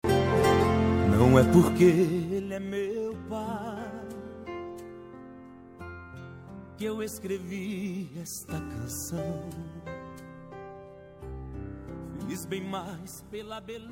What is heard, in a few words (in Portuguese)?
masc, Dia dos Pais – Voz Masculina